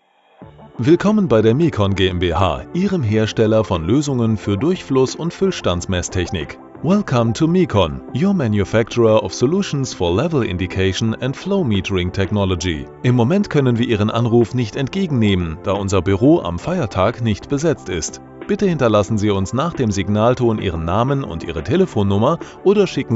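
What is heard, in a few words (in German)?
IVR German English mit Musik; Sprecher in Bornheim; Muttersprache Deutsch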